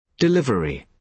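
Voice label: "delivery", British English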